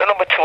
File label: number two male; numbers and letters